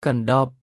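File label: "កណ្ដប", Khmer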